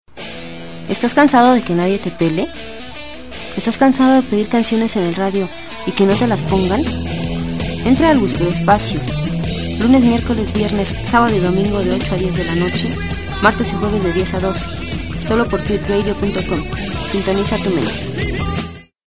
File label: promo whisper espacio